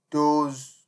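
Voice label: "dose", Nigerian Pidgin, male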